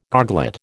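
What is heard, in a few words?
"goglet", American English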